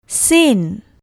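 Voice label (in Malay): "sin", Malay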